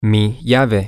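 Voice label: my key, Spanish, male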